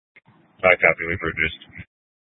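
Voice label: Police Dispatch, Scanner audio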